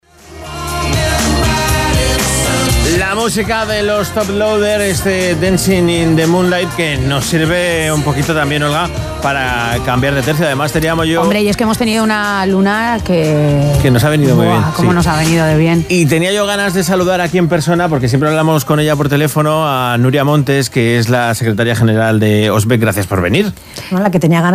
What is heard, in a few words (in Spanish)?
entrevista radio; hosbec; radio sirena COPE